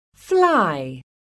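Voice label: "FLY", English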